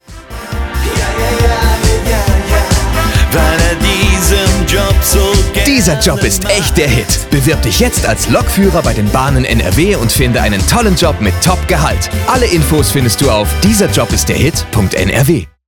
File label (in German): Werbung Lokfuehrer; LOFT VOICES